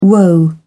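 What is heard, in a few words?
"woe", English, British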